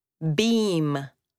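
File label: "beam", spoken word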